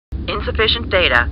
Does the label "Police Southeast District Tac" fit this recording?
No